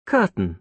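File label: "curtain", British English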